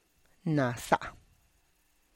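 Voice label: "nasa", Tlacochahuaya Zapotec; joyful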